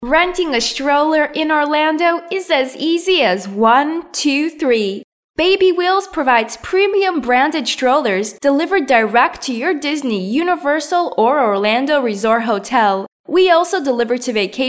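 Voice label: female English American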